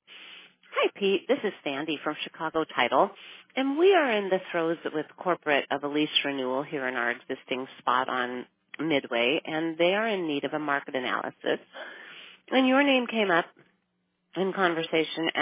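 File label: voicemail Commercial Service Request; Recent request for commercial services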